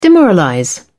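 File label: "demoralize", British English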